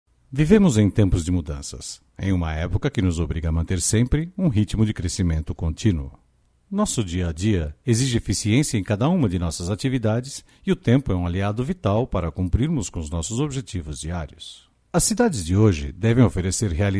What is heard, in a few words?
Portuguese boy (brazil)